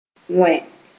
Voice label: "wẽ", moore

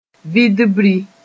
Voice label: "vidbri", moore

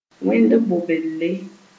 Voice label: "wẽnd bobelle", Moore

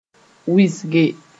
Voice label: "wɩsge", moore

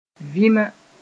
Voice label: "vĩma", moore

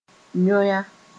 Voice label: "yõya", moore

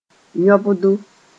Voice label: "yõbdo", moore

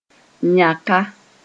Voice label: "yãka", moore

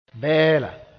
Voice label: "bɛɛla", moore; one-stringed fiddle

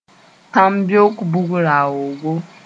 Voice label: "tãmbeok bug-raoogo", moore

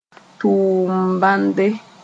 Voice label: "tʋʋm-bãnde", moore; miracle

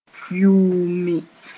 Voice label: "yuumi", moore

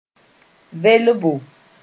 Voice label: "velbo", moore

